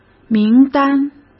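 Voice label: "名单", Mandarin Chinese; chinese-flashcards; hsk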